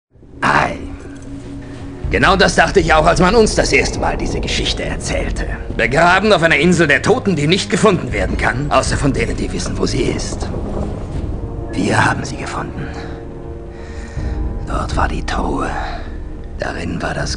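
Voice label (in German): synchron, sprecher; LOFT VOICES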